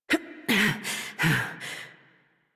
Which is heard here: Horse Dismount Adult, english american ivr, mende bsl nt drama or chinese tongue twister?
Horse Dismount Adult